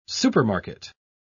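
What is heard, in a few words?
"supermarket", English word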